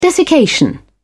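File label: "desiccation", British English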